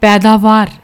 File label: "paidavaar", Punjabi